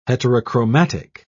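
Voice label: "heterochromatic", English, British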